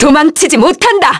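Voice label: Vox Skill, Korean; Priscilla; kingsraid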